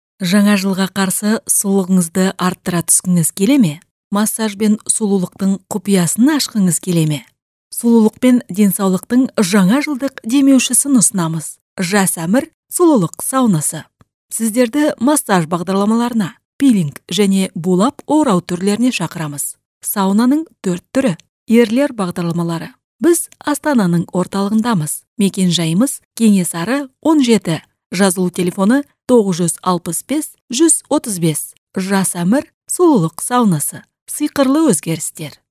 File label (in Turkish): Kazakca Kadin, Kadın Ses; seslendirme